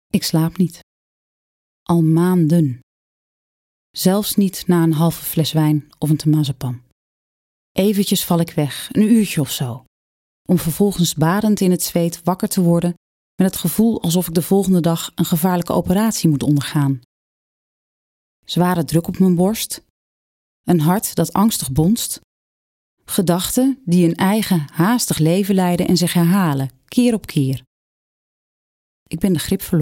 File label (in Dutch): Luisterboek